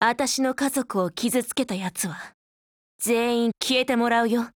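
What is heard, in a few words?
Japanese; HSM10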